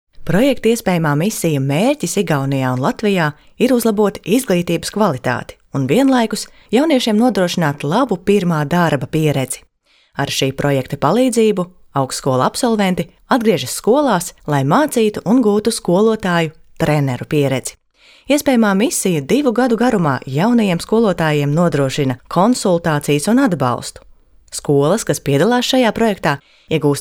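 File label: Latvian, female, explainer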